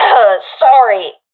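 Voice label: sorry, professional female; vo; agents; Source Model Packs; Counter Strike Model Pack; CS2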